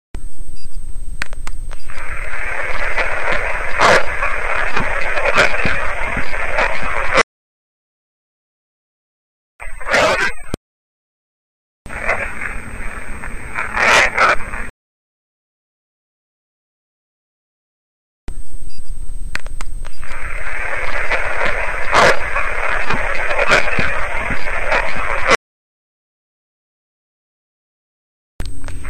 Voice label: clown dolls voice, EVP